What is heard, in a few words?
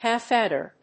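"half-adder", English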